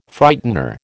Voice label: "Frightener", American

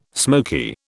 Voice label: "smoky", British

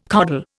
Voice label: "coddle", Indian

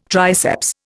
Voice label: "triceps", Indian

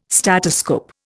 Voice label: "statoscope", Indian

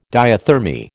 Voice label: "diathermy", American

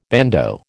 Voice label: "bandeau", American

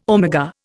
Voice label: "omega", Indian

